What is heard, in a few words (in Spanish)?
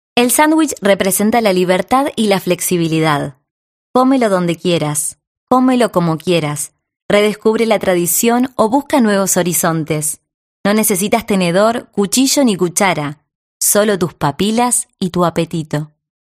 argentino agudo